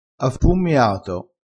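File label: "affummiato", Italian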